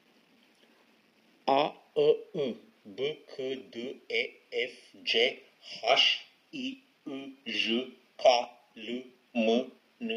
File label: Interview